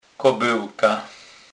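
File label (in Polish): "kobelka", Kurpie dialect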